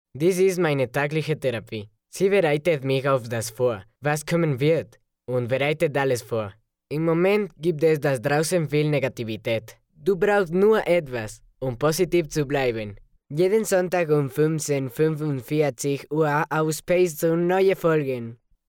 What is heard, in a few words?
Teen Real German